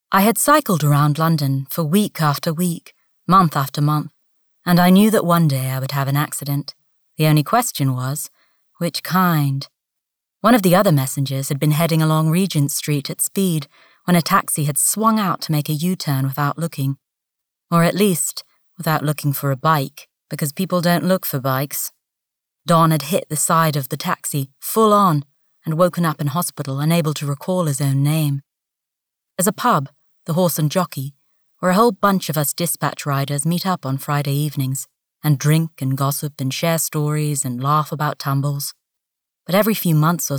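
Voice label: english american narration, Voice Talent - Female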